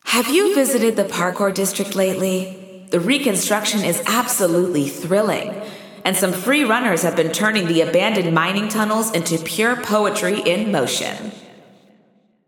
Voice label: Announcements; New Parkour; GAIA

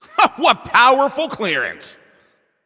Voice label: Announcer Long Hit To Other Side

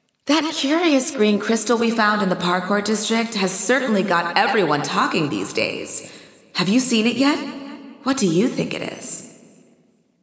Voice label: Announcements; Mysterious Crystal; GAIA

